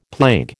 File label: "plague", American